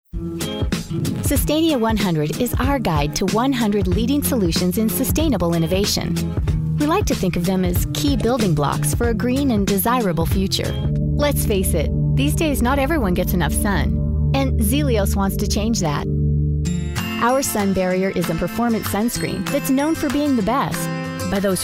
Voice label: Narration Female Educational